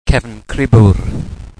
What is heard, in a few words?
"cefncribwr", wales